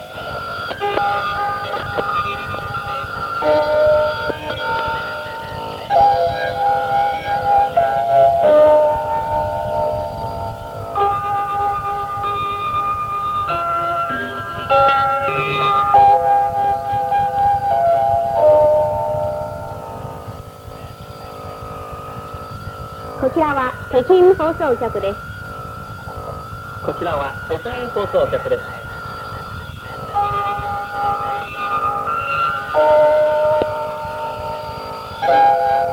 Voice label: Radio Peking Start